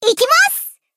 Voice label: Battle Shout; Kotori